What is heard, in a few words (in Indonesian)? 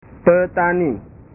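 "petani", Indonesian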